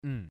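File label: "nnn", Japanese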